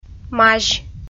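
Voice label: "мазь", Komi, female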